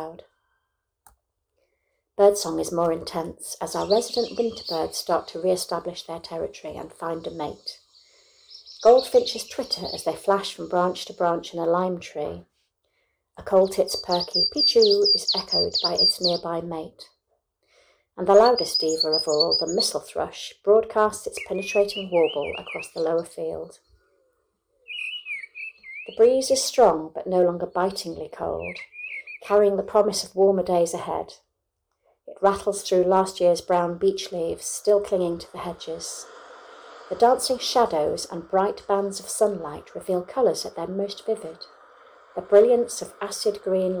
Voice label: Spring voice and sound effects